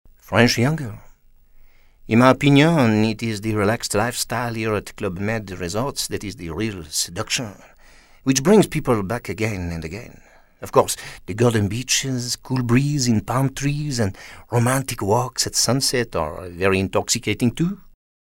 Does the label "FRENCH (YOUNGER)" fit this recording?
Yes